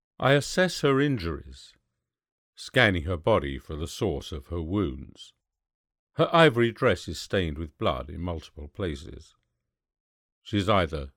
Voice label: Story